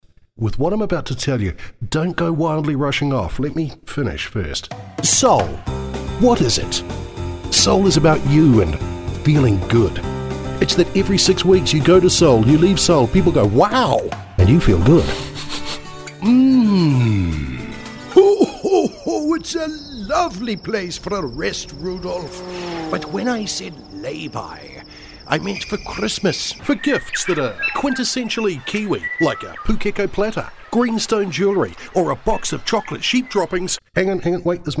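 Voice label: Commercial, Recorded voice-overs, male, English speaking, New Zealand Voice; Commercial demo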